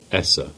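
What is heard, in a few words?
"Saltash" Cornish; place-names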